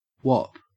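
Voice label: "whop", Australian English; pronunciations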